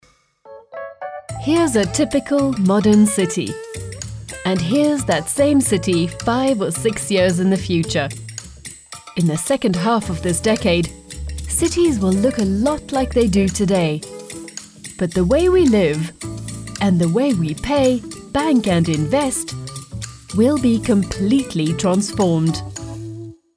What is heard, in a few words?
english british narration